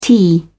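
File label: "tee", British English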